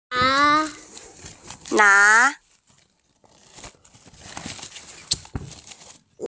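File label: "na2", Mandarin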